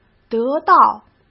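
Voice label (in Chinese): "得到", Mandarin Chinese; hsk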